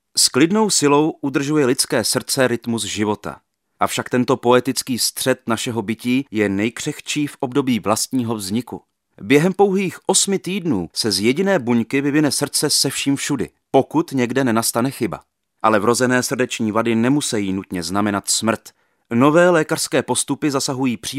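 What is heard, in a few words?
male Czech documentary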